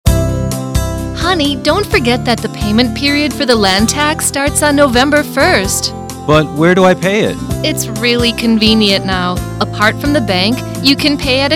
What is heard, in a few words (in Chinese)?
地價稅宣導 60秒廣播廣告 英語版